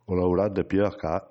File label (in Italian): bergamasco